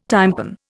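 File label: "tympan", Indian English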